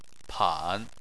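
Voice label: "pan" third tone, Chinese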